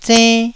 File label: "zenn1", taiwanese syllables